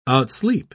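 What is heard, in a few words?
"outsleep", British English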